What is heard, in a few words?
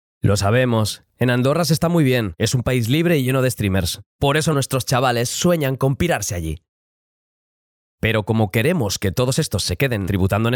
Spanish voice over campaign promotion